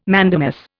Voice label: "mandamus", Indian